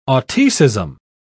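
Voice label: "autoecism", British English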